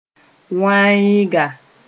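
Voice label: "wãeega", Mooré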